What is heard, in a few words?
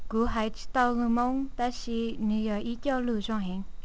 Hmong